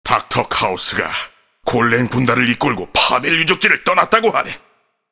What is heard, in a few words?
cinematic voice; lineage2